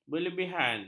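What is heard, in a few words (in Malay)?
"berlebihan", Malay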